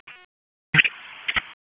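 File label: Police Southwest District Dispatch; Raleigh; wake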